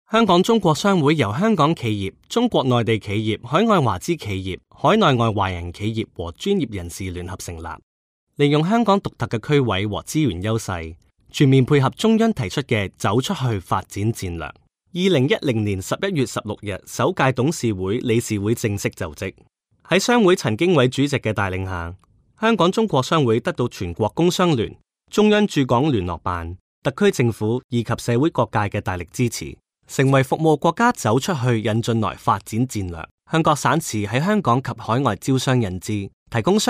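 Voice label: hong kong cantonese male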